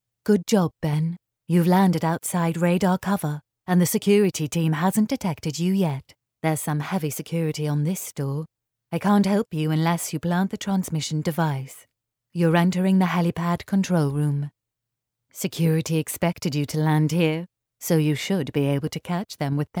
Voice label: english british video games